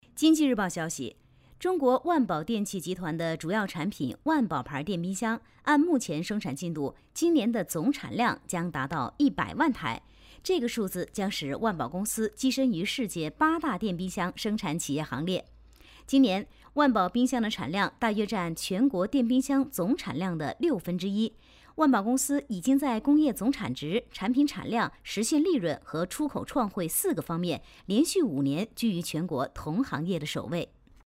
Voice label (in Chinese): 女-新闻-播报新闻